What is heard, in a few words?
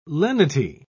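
"lenity", British English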